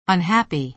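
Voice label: "unhappy", American English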